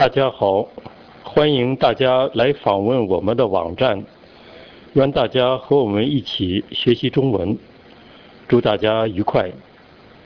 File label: chinese